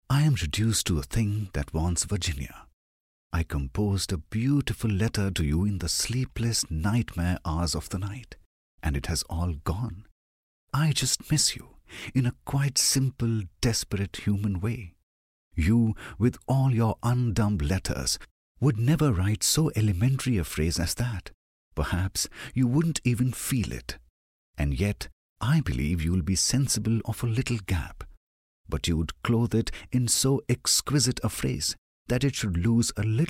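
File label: English male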